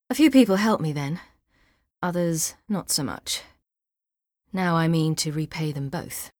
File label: Female; Skyrim Special Edition; Dawnguard Beleval